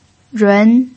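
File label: "run" Mandarin Chinese, second tone, pinyin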